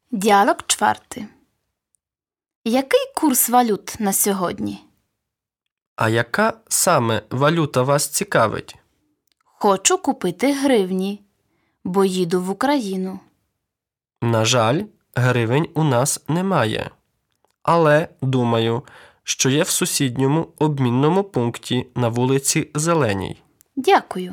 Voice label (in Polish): Lekcja Dialog